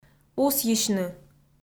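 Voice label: "усйысьны", Komi, female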